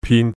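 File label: Cantonese "pin3"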